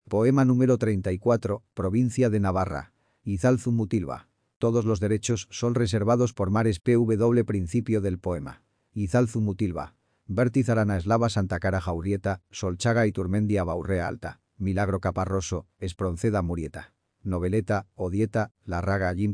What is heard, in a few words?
es male